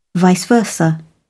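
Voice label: "vice versa", British English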